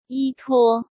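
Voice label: "依托", Mandarin Chinese; chinese-flashcards; hsk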